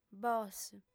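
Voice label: "bos", female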